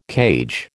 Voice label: "cage", American